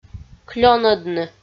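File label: "клёнӧдны" Komi, female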